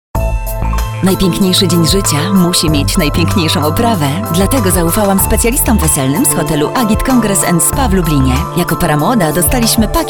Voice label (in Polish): reklama